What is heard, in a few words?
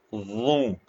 short voyels arabic alphabet